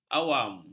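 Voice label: "awam", Malay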